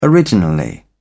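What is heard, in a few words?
"originally", British English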